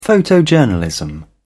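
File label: "photojournalism", British English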